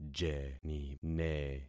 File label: "jenine", concat syllables; Affixing experiment